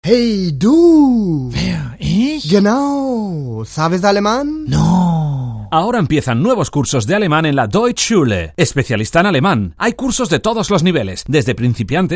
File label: radio spot; 40 principales; Cuña Deutsch Schule